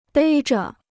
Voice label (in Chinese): "背着", Chinese